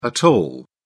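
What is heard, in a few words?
"at all", British English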